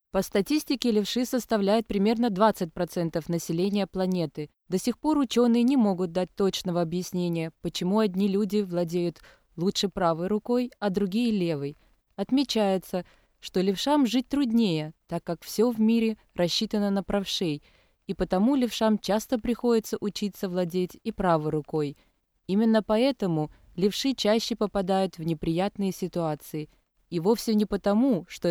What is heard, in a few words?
russian female, voice over; ivr voice over supplying